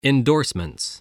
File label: "endorsement", spelling